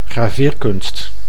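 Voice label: "graveerkunst", Dutch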